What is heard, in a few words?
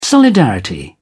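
"solidarity", English, British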